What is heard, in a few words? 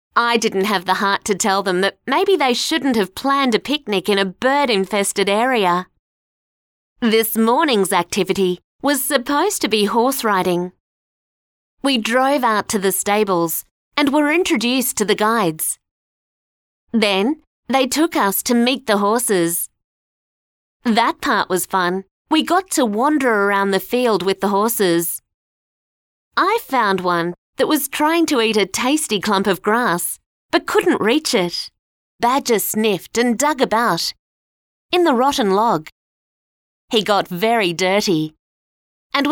childrens audio book voice over